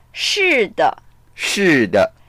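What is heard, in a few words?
"shi4de", Mandarin Chinese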